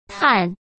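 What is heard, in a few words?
"碳", Mandarin Chinese; chinese flashcards; hsk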